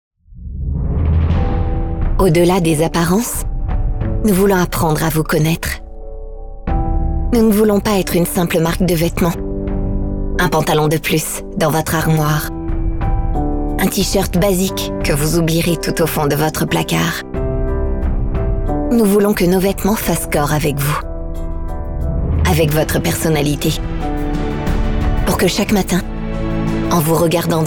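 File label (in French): Pub - Billboard chaleureux, Comédienne; Pub MILKA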